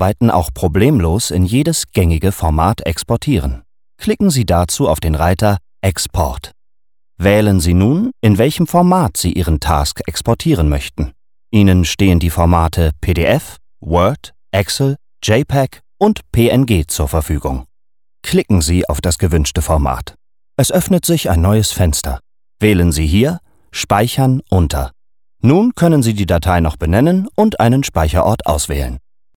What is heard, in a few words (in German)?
E-Learning Automatische Zwischenspeicherung